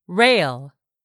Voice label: "rail", English; 3000 words